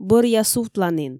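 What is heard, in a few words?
Komi, female; бӧръя сувтланін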